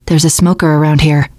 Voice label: heard smoker, teen girl; player; survivor; voice